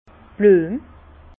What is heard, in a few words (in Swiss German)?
"bluem", Bas Rhin; vin; fleur